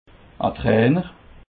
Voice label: "trainer", Alsace

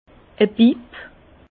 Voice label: "bipp", Bas Rhin; vin

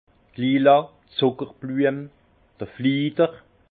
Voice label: "lila", Alsace; vin; lilas

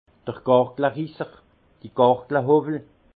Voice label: "garglerisser", alsace; vin; jabloir

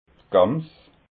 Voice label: "gams", alsace

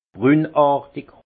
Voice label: "brunartig", alsace; vin

